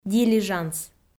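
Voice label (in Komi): "дилижанс", Komi, female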